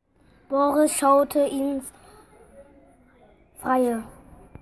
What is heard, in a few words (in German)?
Sprachmemo